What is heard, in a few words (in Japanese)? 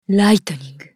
女･ライトニング; ウォーロック